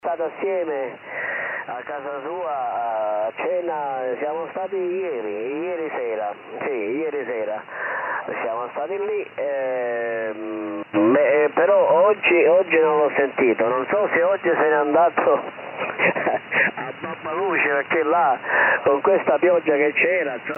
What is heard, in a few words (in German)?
USB Amateurfunk; 14297khz